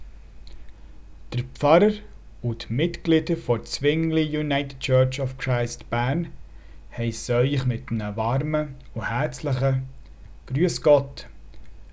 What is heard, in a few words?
Welcome2Swissfest Bern Dialect